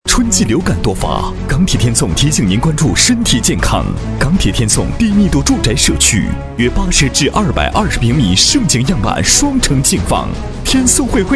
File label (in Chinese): 男 特殊栏目特约广告, 国语男声配音; 港铁天颂